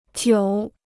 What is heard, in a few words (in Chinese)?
"久", Chinese